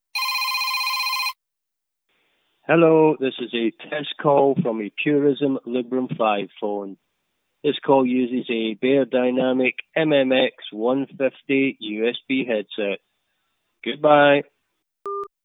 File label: Test Call, Beyerdynamic MMX 150 USB headset; Librem 5